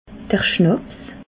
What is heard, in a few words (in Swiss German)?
"schnaps", alsace